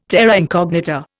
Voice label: "terra incognita", Indian

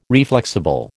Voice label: "reflexible", American

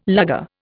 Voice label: "Luger", Indian

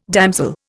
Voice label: "damsel", Indian

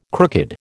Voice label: "crooked", American

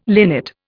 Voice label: "linnet", Indian

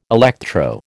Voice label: "electro", American

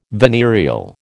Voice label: "venereal", American

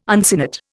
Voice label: "uncinate", Indian

